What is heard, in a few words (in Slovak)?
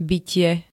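"bytie", Slovak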